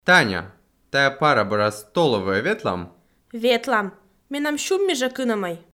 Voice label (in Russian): dialog